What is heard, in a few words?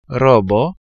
robo